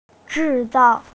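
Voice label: "zhì zào", Mandarin Chinese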